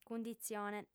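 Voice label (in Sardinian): "cunditzione", female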